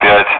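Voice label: Speech, Russian, air traffic control; Digits; DCS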